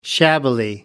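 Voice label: "shabbily", British English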